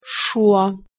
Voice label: "Suo", Lithuanian